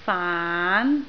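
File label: "faan2", Cantonese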